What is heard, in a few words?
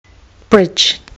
"bridge", kiwi english